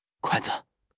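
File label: "kuai4 zi5", Chinese; HSK; HSK3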